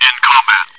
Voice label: chitchat, bot, voice commands; svencoop

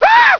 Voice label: ahhh

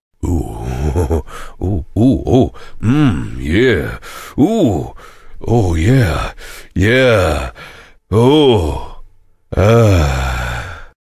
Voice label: chitchat; svencoop; voice commands; Duke

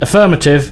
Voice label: Yes, male, voice commands; svencoop

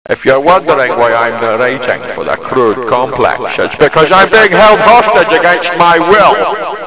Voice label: narrating

